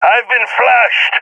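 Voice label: blinded, voice, agents, balkan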